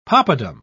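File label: "poppadam", British English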